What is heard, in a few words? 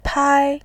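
"pai", Mandarin, tone 1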